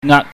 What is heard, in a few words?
"ngak", pingyam